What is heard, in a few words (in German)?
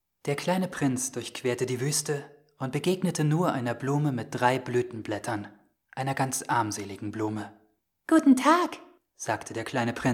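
Hörbuch; Antoine de Saint-Exupéry - Der kleine Prinz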